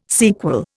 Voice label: "sequel", Indian

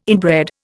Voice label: "inbred", Indian

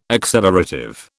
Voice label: "accelerative", British

